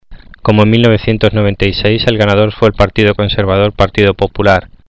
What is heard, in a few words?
Spanish